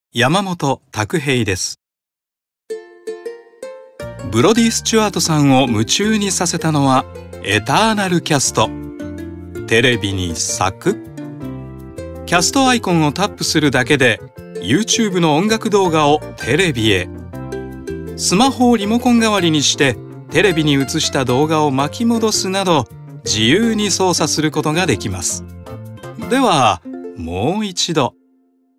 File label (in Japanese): ナチュラル